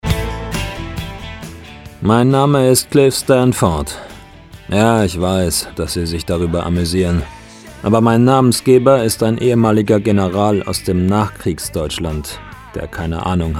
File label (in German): HPS Lesung Pulp; Hörbuch